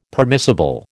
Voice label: "permissible", American

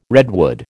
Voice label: "redwood", American

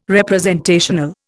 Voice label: "representational", Indian

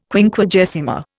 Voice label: "Quinquagesima", Indian

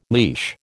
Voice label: "leash", American

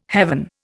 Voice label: "heaven", Indian

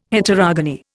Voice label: "Heterogony", Indian

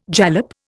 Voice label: "jalap", Indian

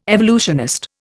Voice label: "evolutionist", Indian

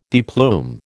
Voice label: "deplume", American

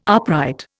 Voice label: "upright", Indian

